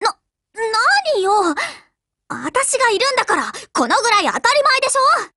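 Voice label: WIN Japanese, Voice; GF Alarm; Destroyer buhuo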